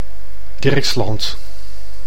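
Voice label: "Dirksland", Dutch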